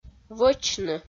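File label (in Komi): "вӧчны", Komi-Zyrian, female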